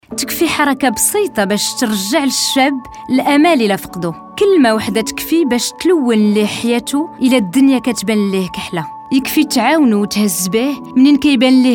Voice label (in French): Radio, Femme